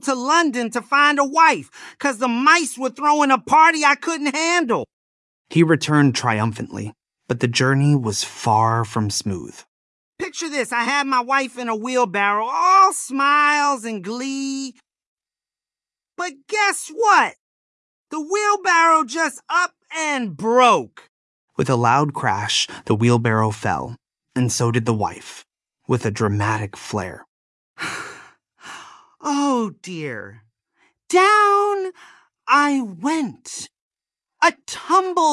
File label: When I Was a Bachelor male us story